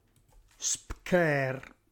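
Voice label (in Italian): "spchêr", Reggiano; sbeccare; reggiane; dialetto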